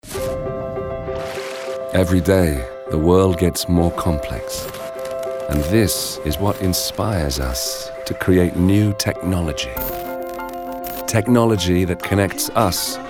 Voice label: commercial montage